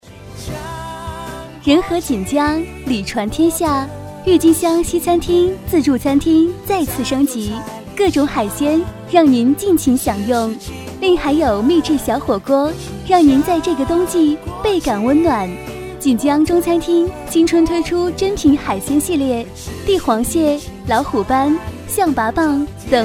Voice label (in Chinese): 女 彩铃 中餐厅彩铃; 锦江中餐厅